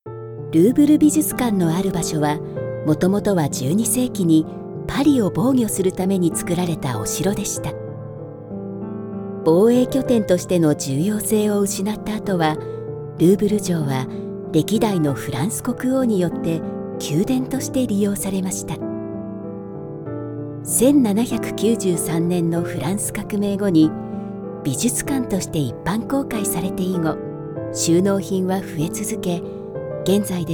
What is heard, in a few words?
japanese audio guides